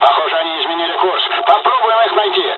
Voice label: dialog, dialogs russian; us midway; War Thunder

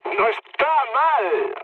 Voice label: trick, mood med, speech; War Thunder

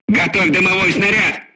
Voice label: voice message load S, crew tanks russian

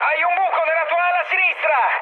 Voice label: left wing mood high, speech it; War Thunder

